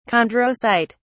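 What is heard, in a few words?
"chondrocyte", British English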